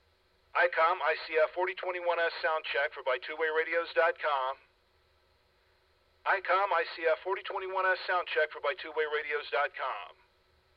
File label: icom ic f4021s; radio samples